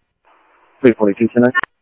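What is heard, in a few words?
Sheriff West County, scanner audio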